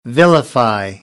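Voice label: "vilify", American, English; AmericanBritish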